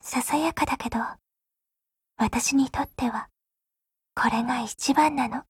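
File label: Japanese; Groza; Dreamscape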